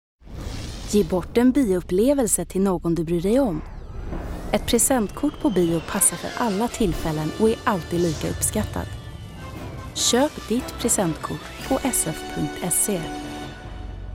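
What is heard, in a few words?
Swedish, Commercial; GoLocalise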